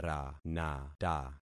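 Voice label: "ranata", concatenated syllables; Affixing experiment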